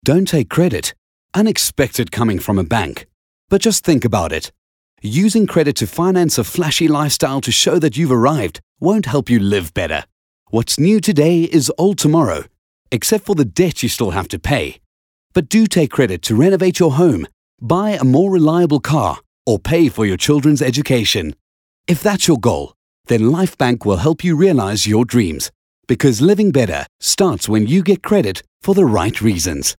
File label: English Corporate